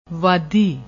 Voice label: "vadi", Punjabi; ਵਾਦੀ